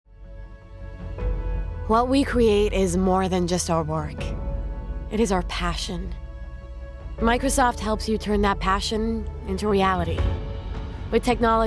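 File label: Commercial American accent; Microsoft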